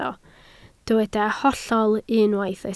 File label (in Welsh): "hollol", Welsh